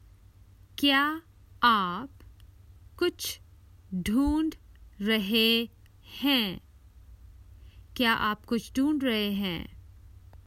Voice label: Common Hindi Phrases for travel Are you looking for something